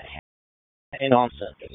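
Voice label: Police Downtown District Dispatch, p25; Raleigh; wake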